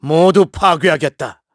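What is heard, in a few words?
Vox Skill, Korean; KaselB; kingsraid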